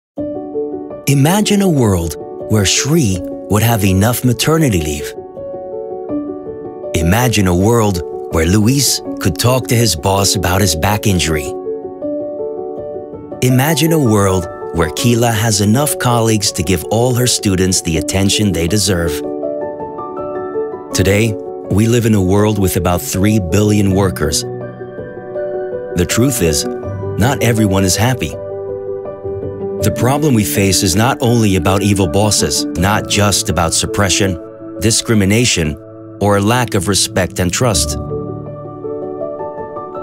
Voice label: Male 35 45 years Medium, english international